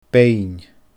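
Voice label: "beinn", Scottish Gaelic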